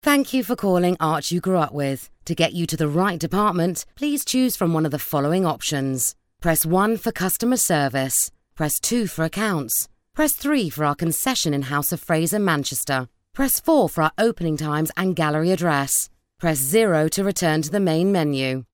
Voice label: ivr business voicemail